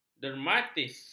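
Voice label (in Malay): "dermatitis", Malay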